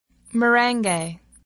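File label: "merengue", English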